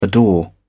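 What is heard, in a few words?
"adore", British English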